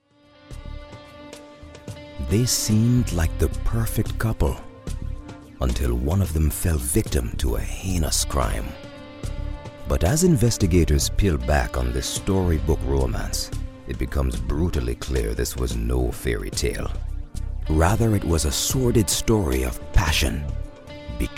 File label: american male caribbean accent